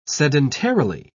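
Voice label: "sedentarily", British English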